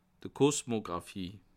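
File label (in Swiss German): "kosmographie", Bas Rhin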